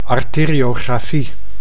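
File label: "arteriografie", Dutch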